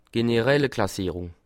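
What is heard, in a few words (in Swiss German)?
"generalklassierung", Bas Rhin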